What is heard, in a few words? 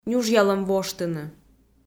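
"нюжъялӧм воштыны", Komi, female; kpv audio dict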